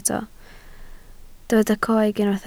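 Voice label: "coeg" Welsh, spoken word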